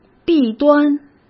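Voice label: "弊端", Mandarin Chinese; chinese-flashcards; hsk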